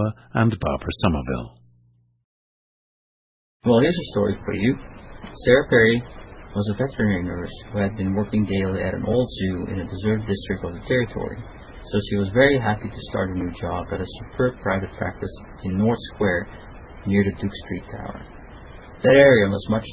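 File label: netherlands, International Dialects of English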